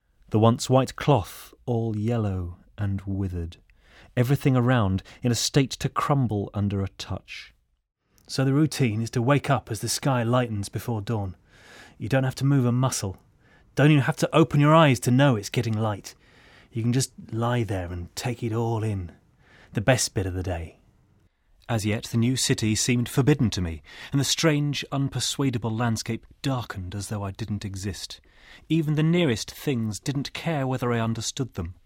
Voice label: Audio Books